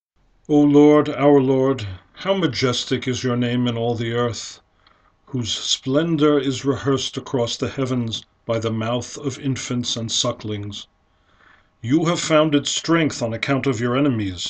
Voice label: psalm 8 English